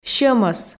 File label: "сьӧмӧс", Komi